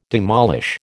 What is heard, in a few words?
"demolish", American